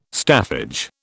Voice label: "staffage", British